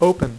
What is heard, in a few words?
"open", Dealer's Speech; PowerPOKER Demo